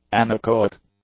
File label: "anechoic", English; adjectives